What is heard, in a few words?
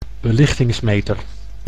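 "belichtingsmeter", Dutch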